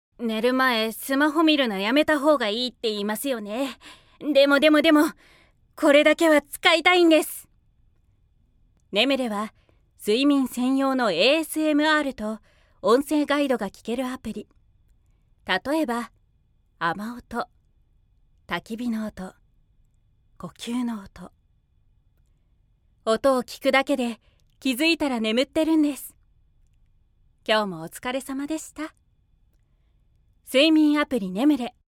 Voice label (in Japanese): ストレートナレーション